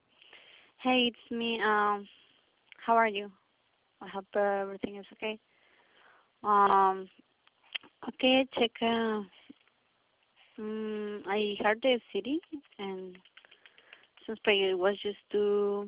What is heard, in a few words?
voice message